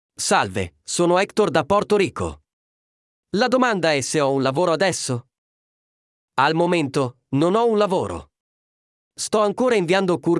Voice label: Audiobook Voice